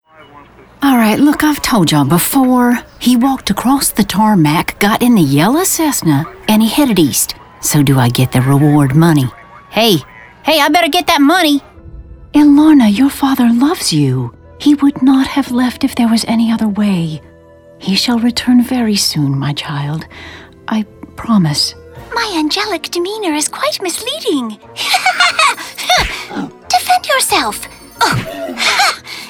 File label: Character